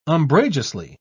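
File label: "umbrageously", British English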